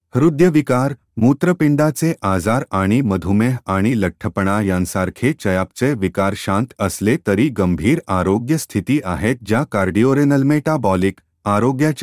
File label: Male Marathi